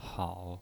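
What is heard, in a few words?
"hao", Mandarin Chinese, third tone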